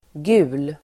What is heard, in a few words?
"gul", Swedish